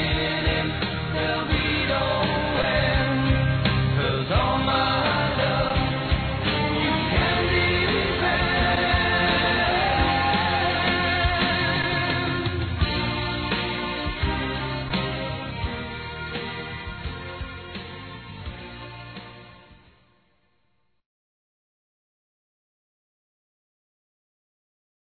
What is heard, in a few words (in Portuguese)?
BOA NOITE ROMANTICO, fem; Bom dia boa tarde boa noite